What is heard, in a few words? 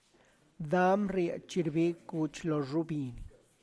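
Tlacochahuaya Zapotec; huitlacoche grows when pigs come near corn seeds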